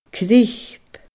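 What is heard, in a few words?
"Cnip", Scottish Gaelic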